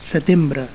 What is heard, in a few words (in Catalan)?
"setembre", Catalan